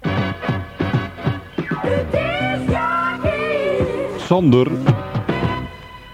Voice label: Jingle discjockey